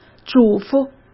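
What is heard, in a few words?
"嘱咐", Mandarin Chinese; hsk; chinese-flashcards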